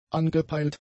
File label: "angepeilt", German; adjectives